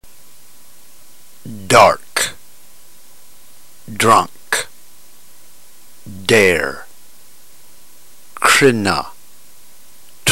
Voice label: drill, ntgreek